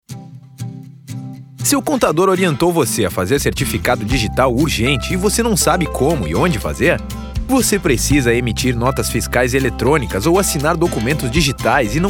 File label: Spot; Certificacao Digital